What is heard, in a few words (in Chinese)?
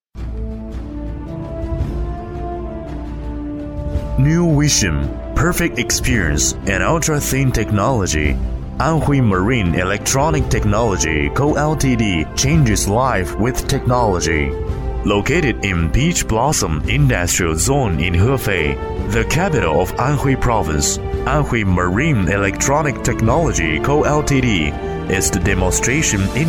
英男 浑厚大气 企业介绍 英文